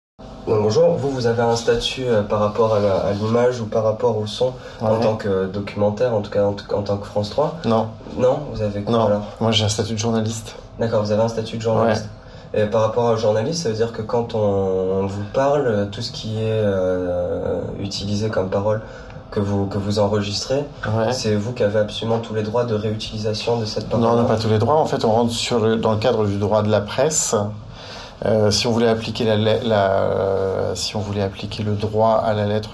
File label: Interview